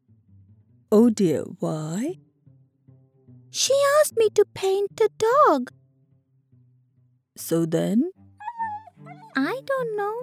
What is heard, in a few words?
Granny and granddaughter